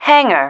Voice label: "hangar", female

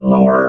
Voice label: "lower", robot

